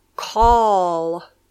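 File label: "call", English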